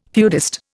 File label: "feudist", Indian